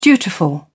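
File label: "dutiful", British English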